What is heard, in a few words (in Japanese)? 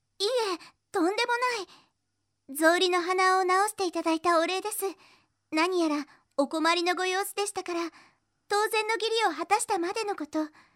キャラクターボイス総合